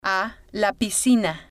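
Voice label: "a la piscina", spanish, female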